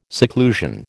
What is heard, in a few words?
"seclusion", American